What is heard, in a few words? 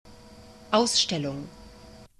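"ausstellung", German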